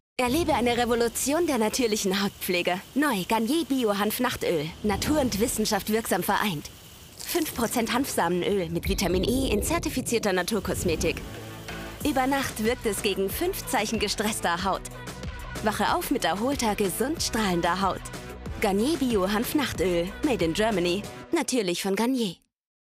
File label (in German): Werbung; Garnier Hanf